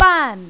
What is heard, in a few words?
"ban4", mandarin, female